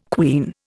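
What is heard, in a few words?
"quean", Indian English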